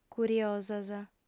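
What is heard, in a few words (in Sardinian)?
"curiosas", female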